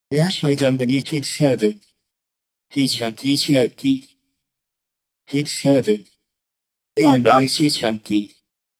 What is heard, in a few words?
short voice tag; advertising